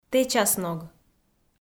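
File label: "тэчасног", Komi, female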